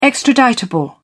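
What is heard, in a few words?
"extraditable", British English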